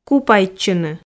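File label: "купайтчыны", Komi, female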